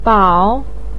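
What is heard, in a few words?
"bao3", Mandarin Chinese, third tone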